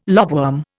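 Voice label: "lobworm", Indian English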